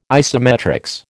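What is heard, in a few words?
"isometrics", American English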